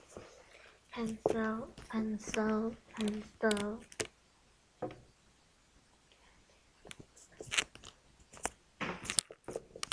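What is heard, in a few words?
"pencil", spoken word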